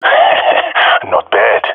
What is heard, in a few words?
compliment, jungle male epic; player vo; Counter Strike Model Pack; CS:GO